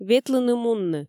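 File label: "ветлыны-мунны", Komi, female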